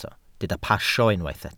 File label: "pasio", Welsh